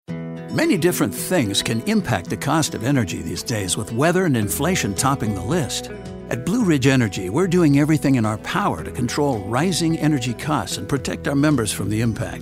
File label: Budget Billing, reassuring midlife to older reads give you a great feeling of confidence